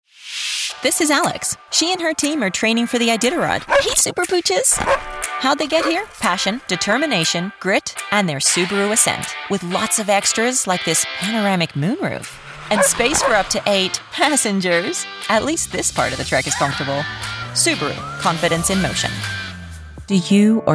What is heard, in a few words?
Commercial, voice